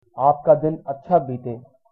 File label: have a nice day, hindi